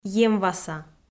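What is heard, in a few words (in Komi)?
"емваса", Komi, female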